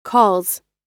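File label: "calls", American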